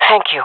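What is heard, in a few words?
thankful, jungle female; vo; agents; Source Model Packs; Counter Strike Model Pack; CS2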